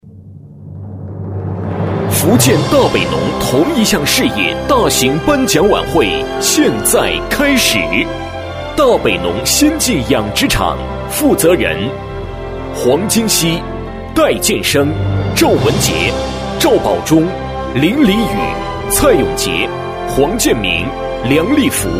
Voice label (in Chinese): 男 专题 颁奖类 激情大气, 国语男声配音, 浑厚